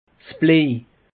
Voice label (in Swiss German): "blej", alsace; peche